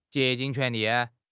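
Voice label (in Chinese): "竭尽全力", Chinese; HSK6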